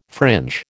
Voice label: "fringe", American